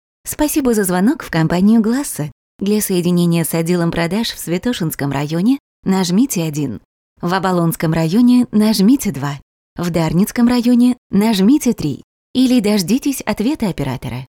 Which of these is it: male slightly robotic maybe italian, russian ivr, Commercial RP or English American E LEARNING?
russian ivr